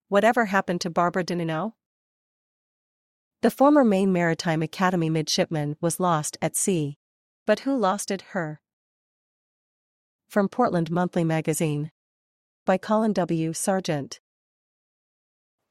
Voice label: voiceover